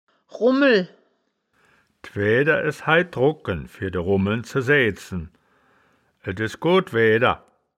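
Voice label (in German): "rummel", wittlicher woerterbuch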